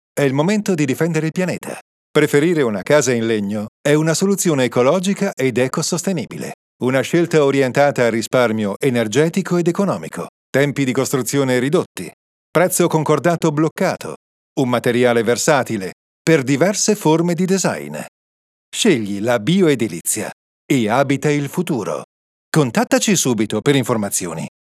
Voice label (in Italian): voiceover redazionale